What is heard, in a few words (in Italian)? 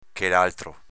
"chelaltro", dialetto veneto; pron. quell’altro